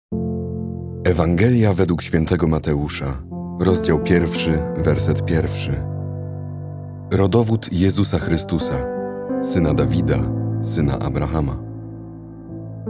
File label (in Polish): Piano Lektor; ALFAOMEGA Rodowod Jezusa; symfonia slowa